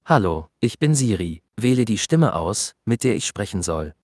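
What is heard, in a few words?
German, Germany; iOS 26 Sounds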